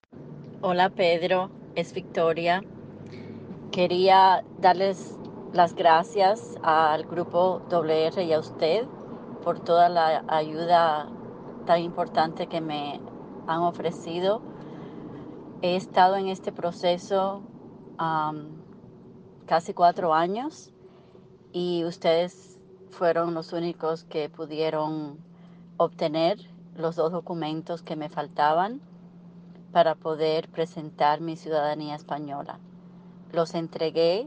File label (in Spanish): Testimonio; California